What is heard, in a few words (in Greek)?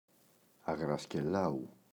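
"Αγρασκελάου", Greek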